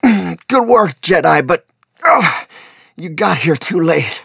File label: wounded soldier, voice; Star Wars Obi Wan; XBOX